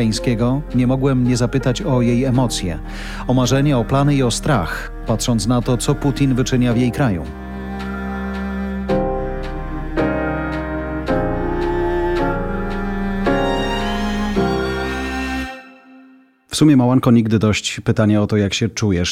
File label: ukrainian basic